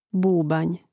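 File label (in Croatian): "bubanj", Croatian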